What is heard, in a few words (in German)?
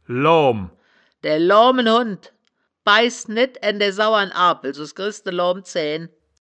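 "loam", wittlicher woerterbuch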